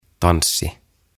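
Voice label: "Tanssi", Finnish; Dance; OTAVA KONSERNI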